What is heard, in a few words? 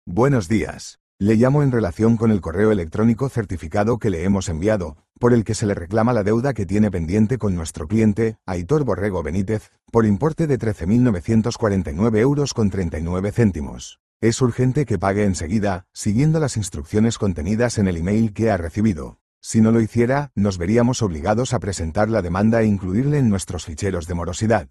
audio call